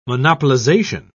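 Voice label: "monopolisation", British English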